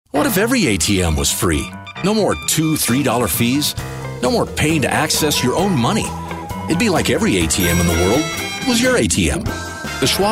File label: Commercial